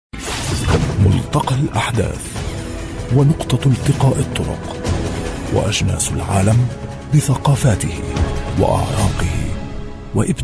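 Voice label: arabic male voice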